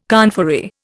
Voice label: "conferee", Indian

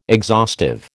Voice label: "exhaustive", American

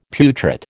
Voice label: "putrid", American

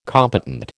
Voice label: "competent", American

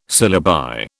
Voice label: "syllabi", British

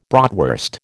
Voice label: "bratwurst", American

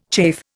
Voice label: "chafe", Indian

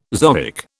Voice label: "zoic", British

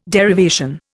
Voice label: "derivation", Indian

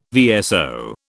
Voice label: "VSO", British

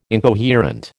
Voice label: "incoherent", American

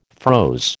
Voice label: "froze", American

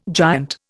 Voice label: "giant", Indian

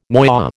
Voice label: "noyau", American

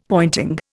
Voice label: "pointing", Indian; Dictionary

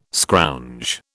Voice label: "scrounge", British

